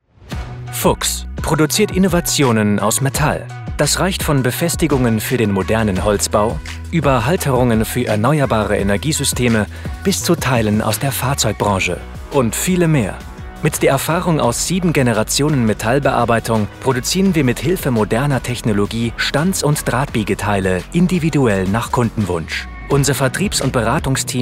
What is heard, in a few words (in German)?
Image Metalltechnik neutral; Fuchs; LOFT VOICES